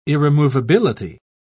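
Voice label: "irremovability", British English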